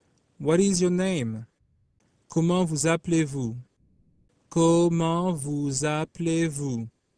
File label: French Name